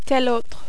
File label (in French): "tel autre", French